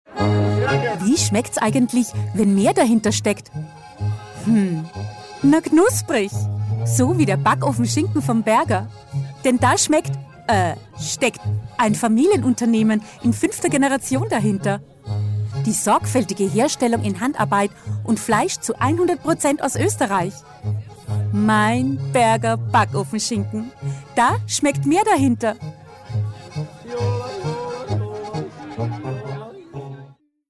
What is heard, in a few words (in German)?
Werbung; Berger